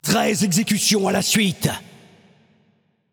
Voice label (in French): Announcer French Spree 13 Kills